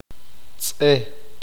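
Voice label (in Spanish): "izquierda", Spanish, male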